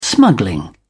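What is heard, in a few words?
"smuggling", British English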